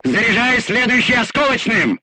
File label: voice message load, crew tanks russian; Frag